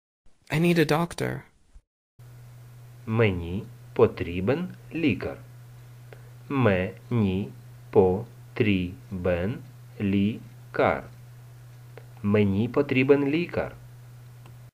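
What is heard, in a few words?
Ukrainian, I need a doctor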